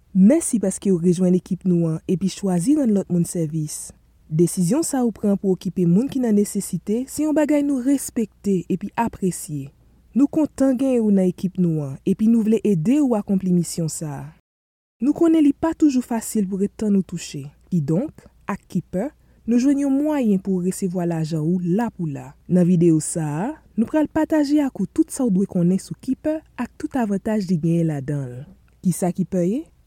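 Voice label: Haitian Creole, Narration; GoLocalise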